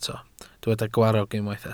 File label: "gwarrog", Welsh